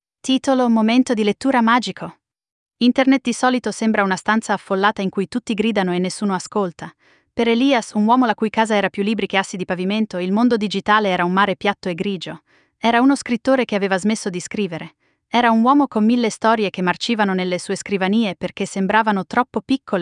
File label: italian; micro-tales